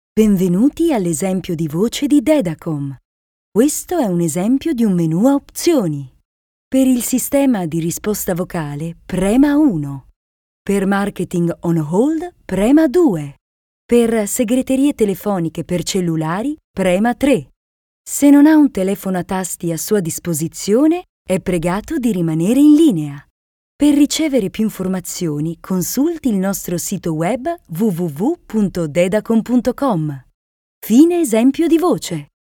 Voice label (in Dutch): Voice over Italiaans vrouw